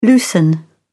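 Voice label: "loosen", British English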